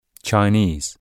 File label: "chinese", en